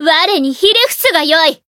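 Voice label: encount, Japanese; tiramisu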